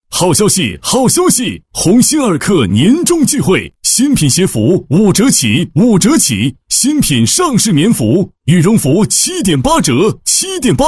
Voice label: 激情促销男; 鸿星尔克年终钜惠

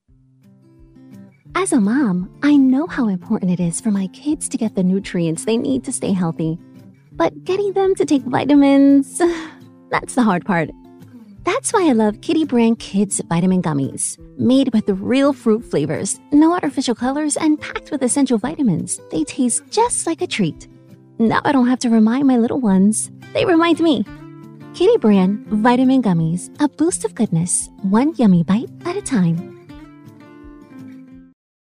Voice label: 美式女声

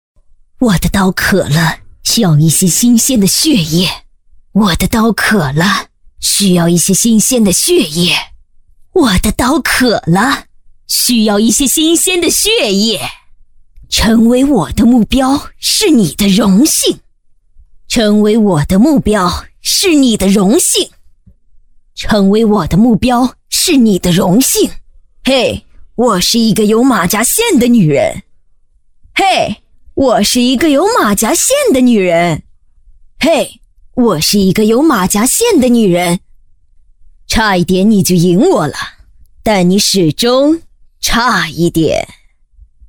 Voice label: 女 角色模仿 高冷; LOL暗刃猎手

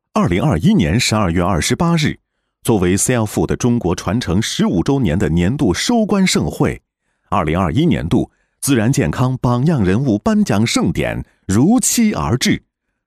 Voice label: 男 新闻配音 大气激情; 男169-新闻配音-大气激情; 新闻播报

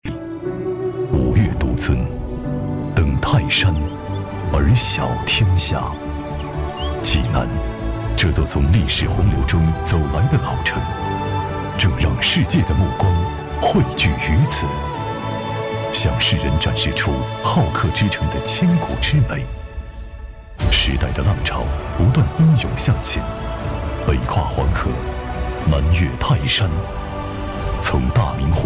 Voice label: 男 城市宣传 厚重有力; 男98-磁性品质 高端大气; 银川

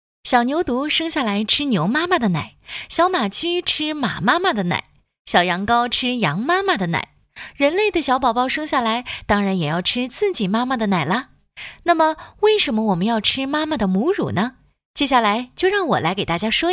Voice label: 女 科普介绍 轻快科技; 为什么要吃母乳; 科技感配音

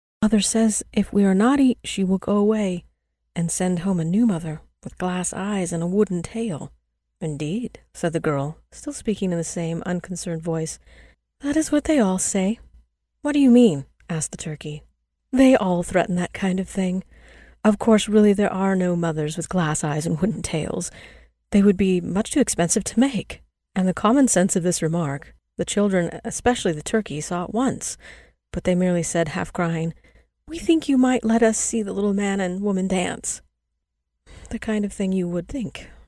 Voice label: 美式女声 对话风格

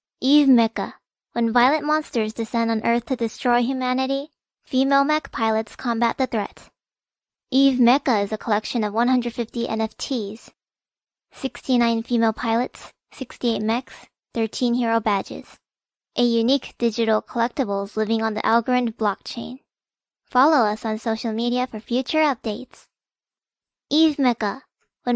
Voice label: 韩语女声, 英语

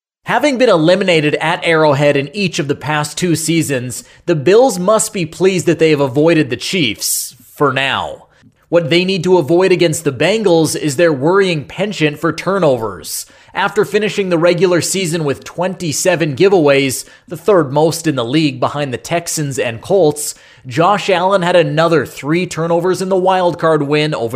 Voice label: 美式男声

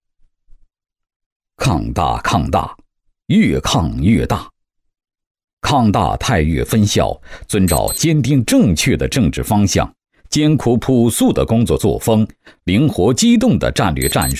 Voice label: 男 学校专题 大气正气; 高端内涵; 大气浑厚; 抗大太岳分校

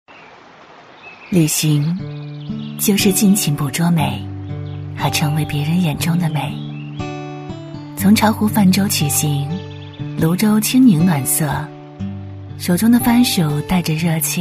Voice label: 女-景区宣传-甜美舒适-优美; 磁性温暖; 舒缓抒情; 巢湖

